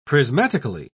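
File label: "prismatically", British English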